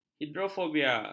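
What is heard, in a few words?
"hidrofobia", Malay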